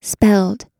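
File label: "spelled", US English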